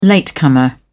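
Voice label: "latecomer", British English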